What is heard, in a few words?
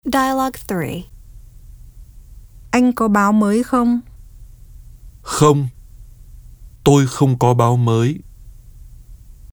Dialog